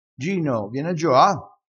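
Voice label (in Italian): "sfavato", dialettale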